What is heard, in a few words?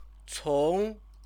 "cong", Chinese; 从; from; co-verb